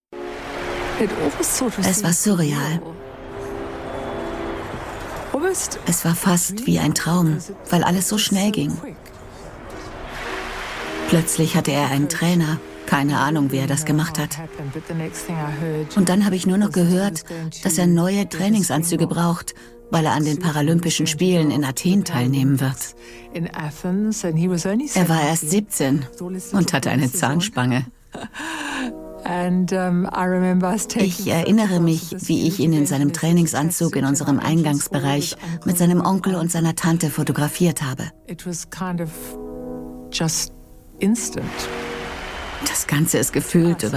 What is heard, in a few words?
Voice Over; Oscar Pistorius